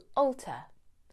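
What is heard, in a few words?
"alter", English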